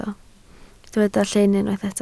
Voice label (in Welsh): "llyn" Welsh